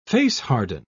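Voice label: "face-harden", British English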